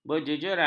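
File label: "berjejeran", Malay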